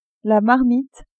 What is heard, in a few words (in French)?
"marmite", French; cuisine